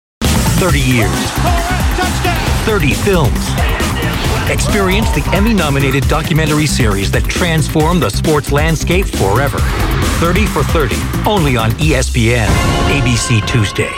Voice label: Promos